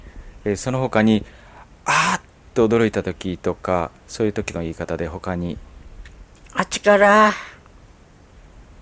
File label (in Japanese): ああおどろいた, Ainu; mukawa